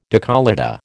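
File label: "décolleté", American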